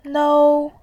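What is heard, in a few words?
"nou", Mandarin, tone 1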